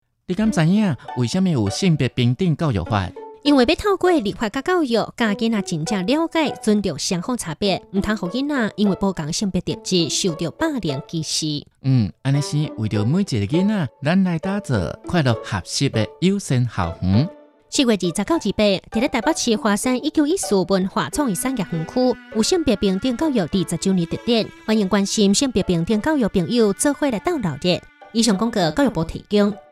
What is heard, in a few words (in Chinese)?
性別平等教育法20週年 臺語; 教育部